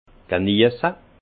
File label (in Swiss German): "geniesse", alsace; jouir de; vin